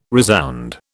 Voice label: "resound", British